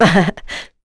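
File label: Vox Happy, English; Chrisha; kingsraid; voices heroes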